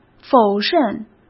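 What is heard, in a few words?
"否认", Mandarin Chinese; hsk; chinese flashcards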